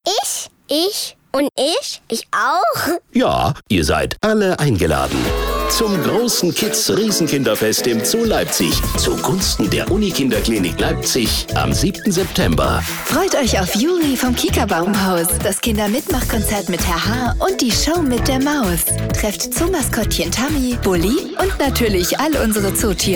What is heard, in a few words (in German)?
energetisch; hitradio rtl